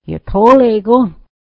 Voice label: its a cold day isnt it, mohawk

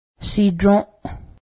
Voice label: live reside, Cayuga; sídrǫˀ

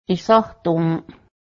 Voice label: you lost it, cayuga; ˀehsáhdǫ:ˀ

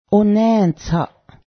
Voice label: arm, Cayuga; onę́:tsaˀ

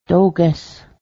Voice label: it is true, Cayuga; dogęhs

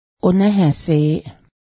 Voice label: corn green, Cayuga; onęhę́:seˀ

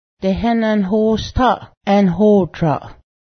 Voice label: baseball, Cayuga; dehęnę́nhó:staˀ ęˀnhó:traˀ

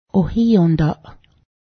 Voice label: corpse, Cayuga; ohiyǫ́daˀ

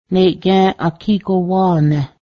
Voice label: our superior, Cayuga

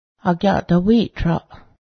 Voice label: coat, Cayuga; agyadawíˀtraˀ

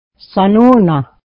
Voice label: wait, mohawk

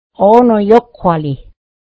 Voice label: food is ready to eat, mohawk

